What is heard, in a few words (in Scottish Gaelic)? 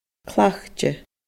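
"cleachdte", Scottish Gaelic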